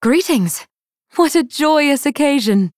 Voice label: Female Night Elf New Game

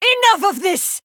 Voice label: Female Blood Elf Attack

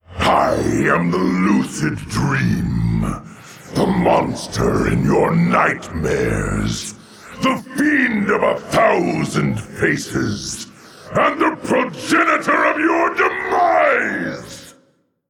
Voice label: Male Old God Attack

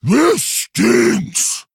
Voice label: Male Quillboar Attack

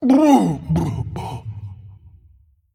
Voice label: Female Animated Cauldron Death

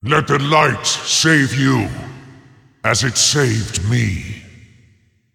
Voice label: Male DreadLord Play

